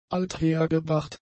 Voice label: "althergebracht", German; adjectives

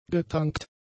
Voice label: "getankt", german; adjectives

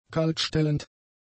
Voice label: "kaltstellend", German; adjectives

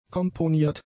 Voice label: "komponiert", German; adjectives

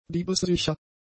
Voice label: "diebessicher", german; adjectives

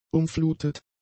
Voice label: "umflutet", german; adjectives

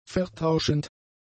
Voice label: "vertauschend", German; adjectives

